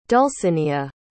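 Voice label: "dulcinia", English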